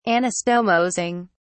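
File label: "anastomosing", English, British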